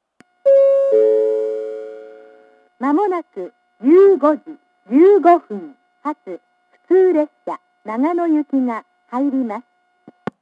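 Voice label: platform 2 approaching advance notice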